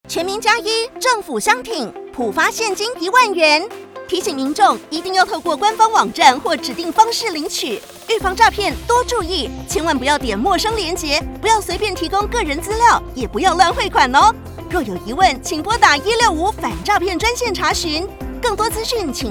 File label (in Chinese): 廣播 國語版; 全民+1 政府相挺預防詐騙篇廣播-國語版